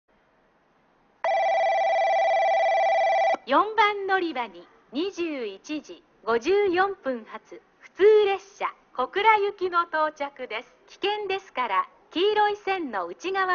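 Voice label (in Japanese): 4番のりば接近放送, 中津駅; 普通 小倉行き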